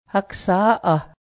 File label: boy, Cayuga; haksá:ˀah; boy (child)